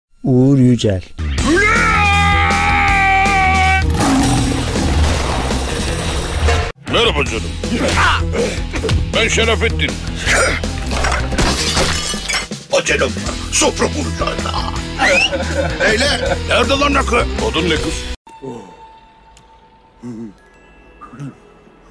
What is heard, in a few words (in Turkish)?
animasyon